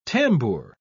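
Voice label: "tambour", British English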